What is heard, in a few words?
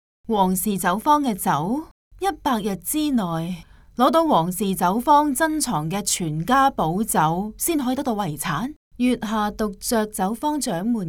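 chinese cantonese female character; voice demos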